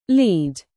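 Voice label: "live", verb, British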